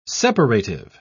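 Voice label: "separative", British English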